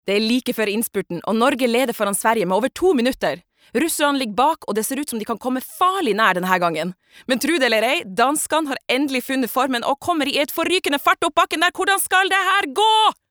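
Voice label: Norwegian dub commentator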